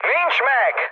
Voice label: bomb failure, speech hun; War Thunder